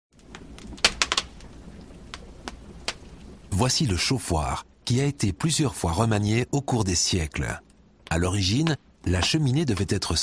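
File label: FR M narration, French, male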